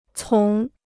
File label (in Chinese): "从", Chinese